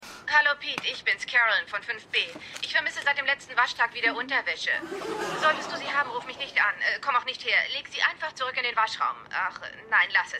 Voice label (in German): Telefonstimme TV Sprecherin